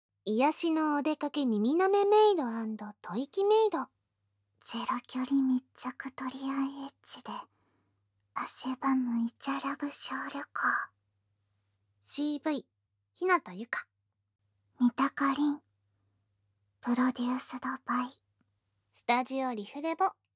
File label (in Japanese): タイトルコール, ASMR